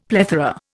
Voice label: "plethora", Indian; Dictionary